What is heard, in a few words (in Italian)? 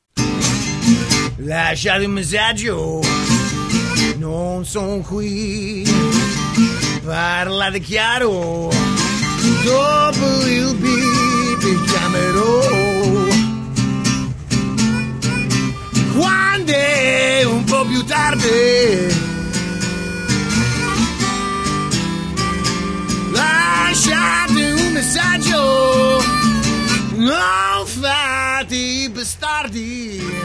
Segreterie Telefoniche - Segreteria Blues